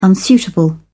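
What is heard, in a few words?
"unsuitable", British English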